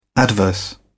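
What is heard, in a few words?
"adverse", British English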